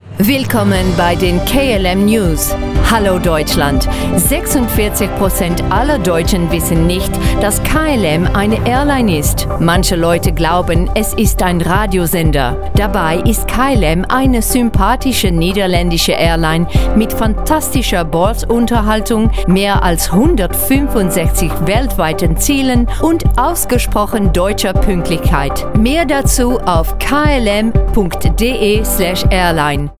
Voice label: Duits; KLM

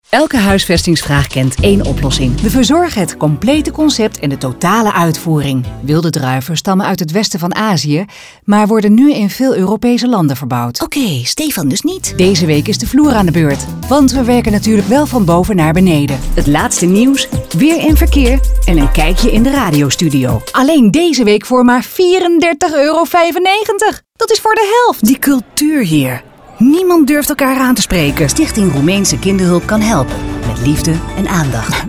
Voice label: ALLROUND stemdemo

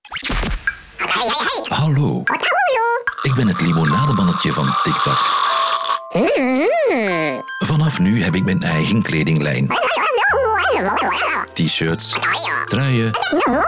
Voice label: Vlaams; VAR; Tik Tak; limonademannetje

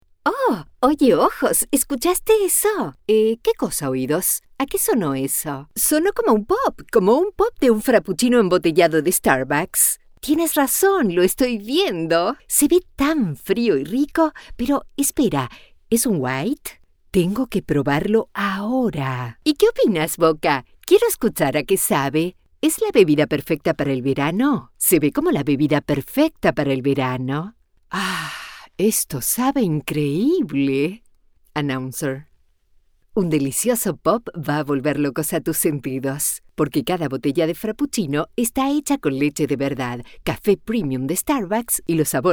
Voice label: spaans

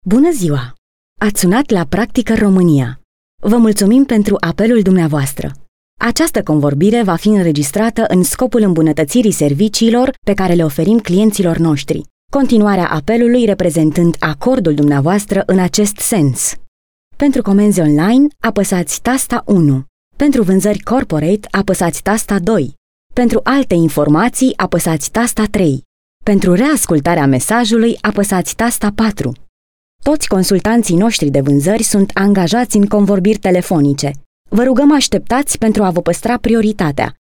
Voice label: Roemeens Vrouw

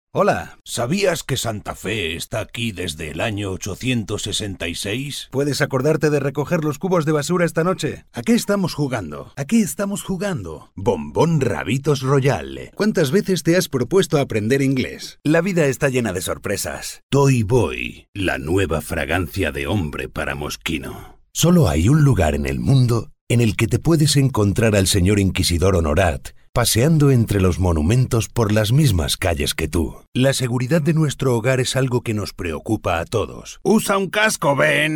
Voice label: Spaans Man

